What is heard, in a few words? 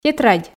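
"тетрадь", Komi, female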